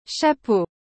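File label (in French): "Chapeau", French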